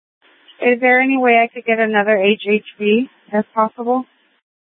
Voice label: Valley Metro Public Transit System Light Rail Security, scanner